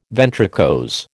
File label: "ventricose", American